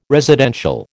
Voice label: "residential", American